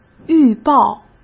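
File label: "预报", Mandarin Chinese; hsk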